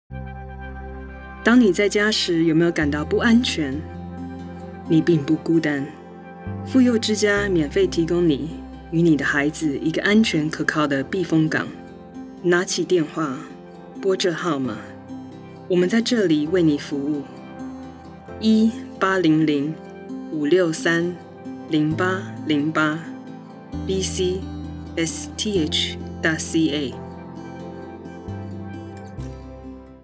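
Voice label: 30sec RADIO PSA Mandarin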